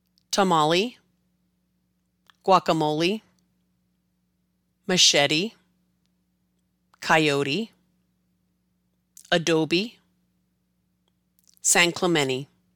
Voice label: Tamale to San Clemente, pronounce spanish words used in english